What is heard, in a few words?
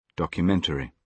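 "documentary", British English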